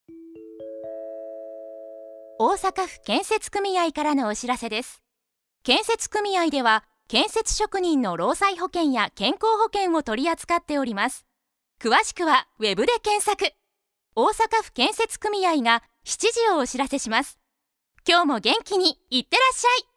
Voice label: radio; osaka